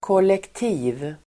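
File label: "kollektiv", Swedish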